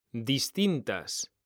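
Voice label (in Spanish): "distintas", Spanish; mekanta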